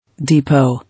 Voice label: "Depot", English